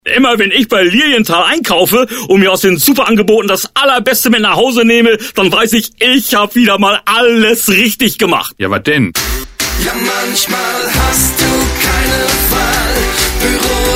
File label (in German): Spot